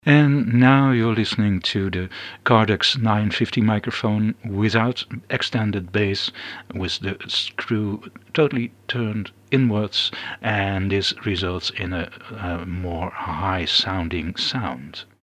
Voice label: Cardax UK less bass

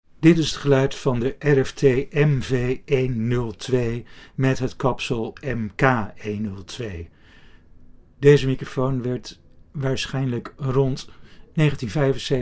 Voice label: RFT MV102 sound

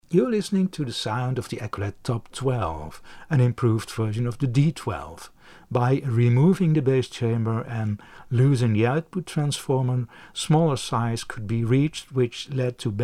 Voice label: Echolette Top12 sound UK

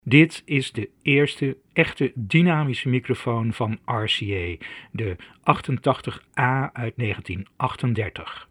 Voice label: RCA 88-A sound

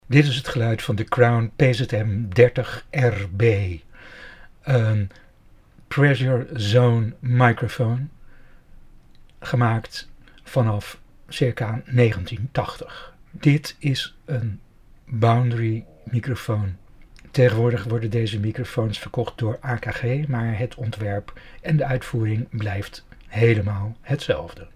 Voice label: Crown PZM30RB NL sound

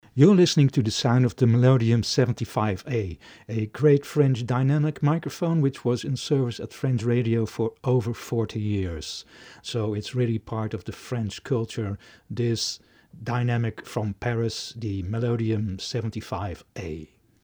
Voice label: Melodium 75A Bl sound UK

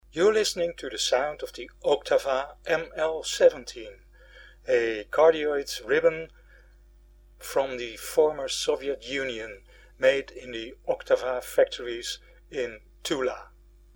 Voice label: Oktava ML-17 sound UK

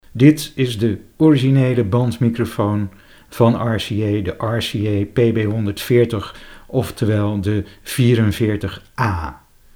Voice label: RCA PB140 sound NL

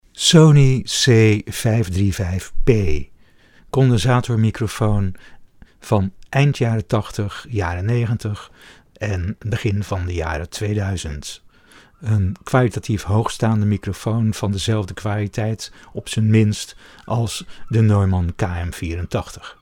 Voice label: Sony C535P NL

